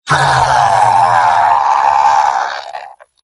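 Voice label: pain critical death; sniper; mvm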